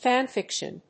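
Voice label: "Fan fiction", English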